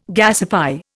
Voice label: "gasify", Indian